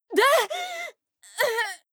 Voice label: Female; Skyrim Special Edition; Dawnguard Beleval

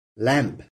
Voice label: "lamp", English

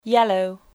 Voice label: "yellow", English

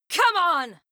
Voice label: Female; Skyrim Special Edition; Dawnguard Beleval

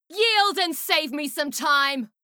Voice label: Female; Skyrim Special Edition; Dawnguard Beleval

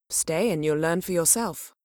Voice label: female; Skyrim Special Edition; UVNPC Assigned; Solitude; Endarie

